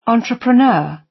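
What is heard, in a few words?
"entrepreneur" British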